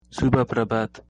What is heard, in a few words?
good morning nepali